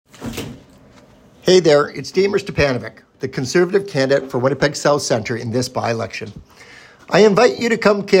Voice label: robocall victoria day door knocking